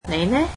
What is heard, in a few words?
cantonese and you